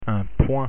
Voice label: "point", French pronunciation